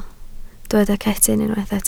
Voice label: "cetyn", Welsh